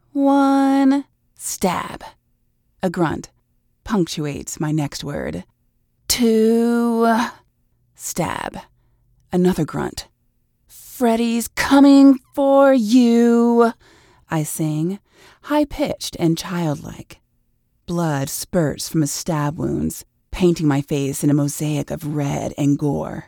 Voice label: Horror Sadistic Female